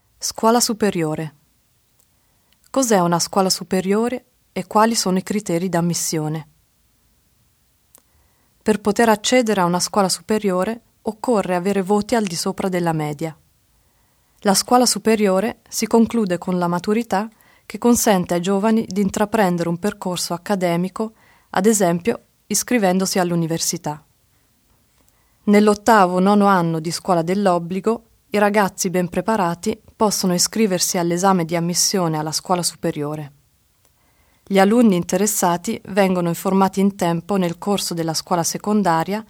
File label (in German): mittelschule italienisch